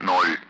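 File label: Speech Sound Russian Common Allied Flight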